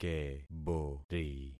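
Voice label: "kebori", concatenated syllables; Affixing experiment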